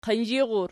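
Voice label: "qinzhir" plural, Archi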